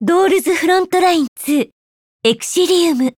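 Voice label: Title Call, Japanese; Peritya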